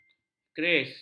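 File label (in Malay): "keris", Malay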